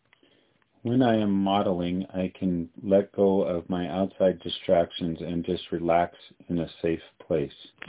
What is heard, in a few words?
Voice message